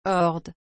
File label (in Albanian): "horde", frengjisht